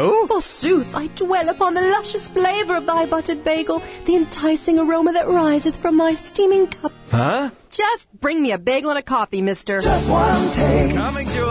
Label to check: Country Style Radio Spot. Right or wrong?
Right